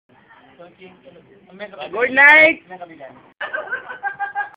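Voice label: Aggressive Good Night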